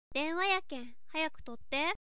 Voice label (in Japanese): denwa yaken